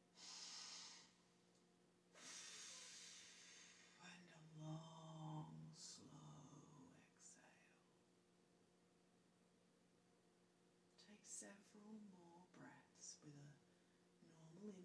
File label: Forest Glade Meditation